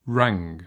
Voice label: "rang", English; verb